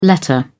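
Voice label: "letter", British English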